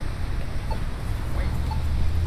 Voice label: Crossing Button Speech; Foodmaxx (And Going There-Back)